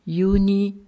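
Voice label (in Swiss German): "Juni", Alsatian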